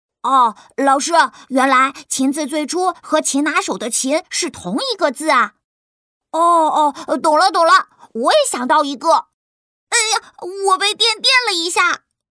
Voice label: 女 模仿 男童卡通

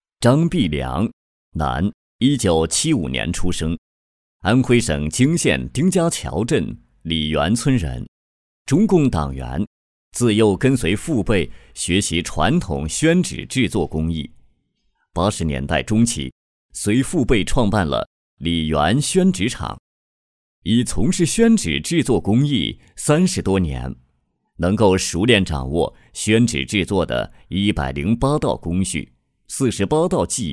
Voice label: 男 人物解说,专题; 宣纸传承人; 张必良

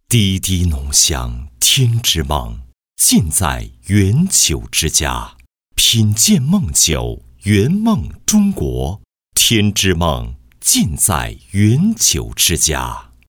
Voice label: 男, 广告; 缘酒之家

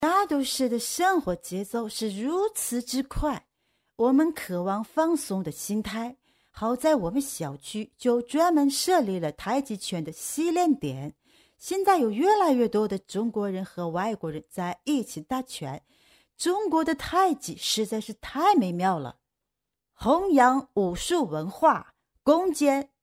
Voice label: 模仿 40岁外国人说中国话

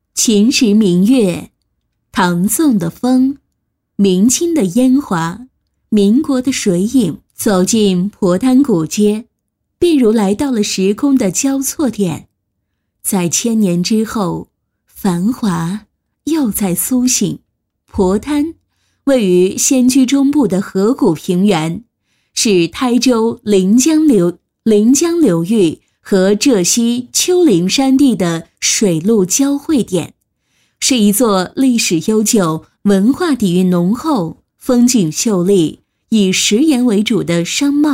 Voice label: 女 专题 宣传片; 皤滩

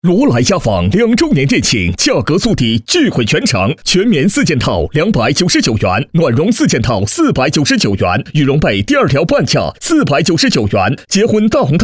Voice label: 男 广告

